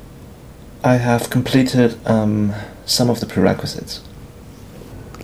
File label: interviewee male